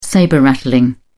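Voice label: "sabre rattling", English, British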